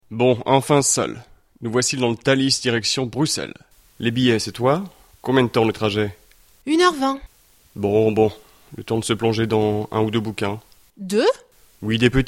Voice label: dialogue, French